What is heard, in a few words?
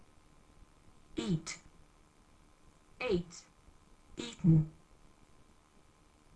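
"eat", English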